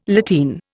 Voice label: "lateen", Indian

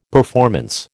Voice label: "performance", American

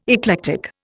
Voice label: "eclectic", Indian

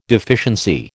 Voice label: "deficiency", American

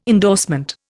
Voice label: "endorsement", Indian

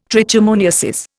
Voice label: "trichomoniasis", Indian

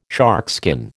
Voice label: "sharkskin", American

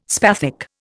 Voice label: "spathic", Indian

